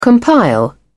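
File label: "compile", British English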